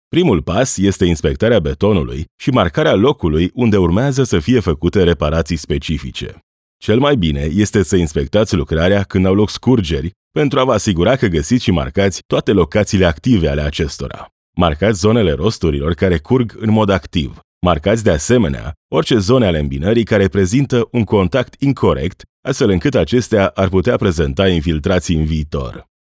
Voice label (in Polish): native speaker (rumuński), lektor